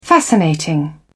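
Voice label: "fascinating", British English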